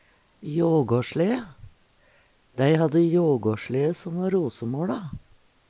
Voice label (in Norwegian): "jågåslee", Numedalsmål